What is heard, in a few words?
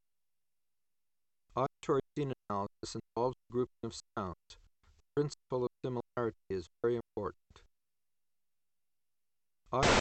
The Picket-Fence Effect With Speech; Bregman ASA; auditory demonstrations